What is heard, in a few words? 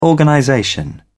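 "organization", British English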